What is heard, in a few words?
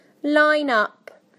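"line up", British English; PictureDictionary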